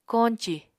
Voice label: "koñcì", Apatani

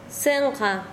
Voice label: "mariz", Quechua Chanka

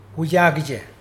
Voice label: "this place", Mokilese

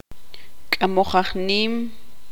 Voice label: tzutujil; correr

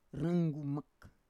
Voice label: "ranggumak", Roon

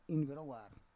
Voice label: "in vero war", Roon

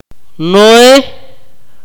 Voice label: "brother", Akateko

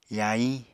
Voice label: "yahiñ", Apatani

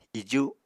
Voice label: "iju", Apatani

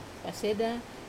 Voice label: "paseda", shell bracelet, wamesa

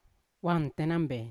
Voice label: "wan tenambe" we all, Yawa

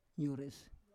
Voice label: "yores", Roon

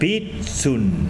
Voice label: "pluma", Tsesungun

